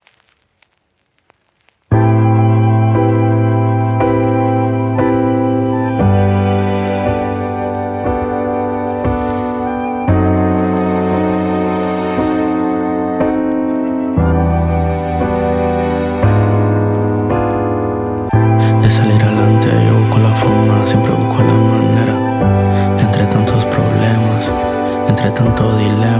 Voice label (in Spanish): wampi nota de voz